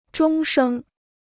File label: "终生", Chinese